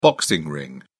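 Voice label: "boxing ring", English, British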